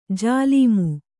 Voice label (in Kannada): "ಜಲ್ಮ", kannada